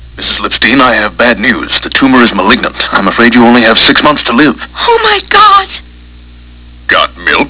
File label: milk advert; herpes twice and the clap